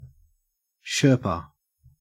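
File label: "sherpa", Australian English; pronunciations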